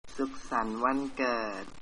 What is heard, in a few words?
happy birthday thai